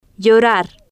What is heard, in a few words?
"llorar", spanish